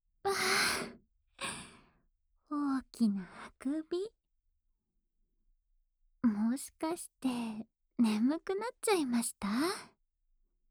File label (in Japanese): ピロー添い寝（右耳）, ASMR